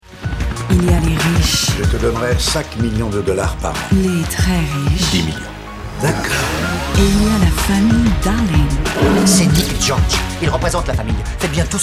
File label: French Promo Tv; Français; sensuel; ABC; Billboard Dirty Sexy Money